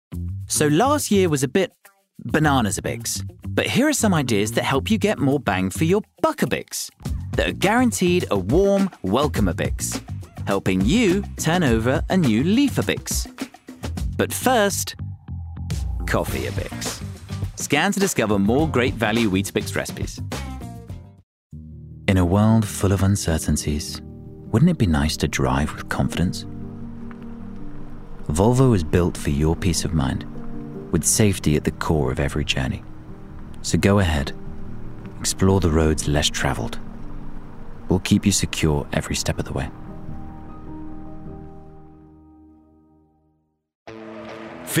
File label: Commercial Voice